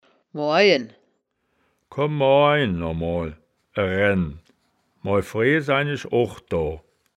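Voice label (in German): "moorjen", wittlicher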